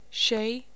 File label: "7", Faroese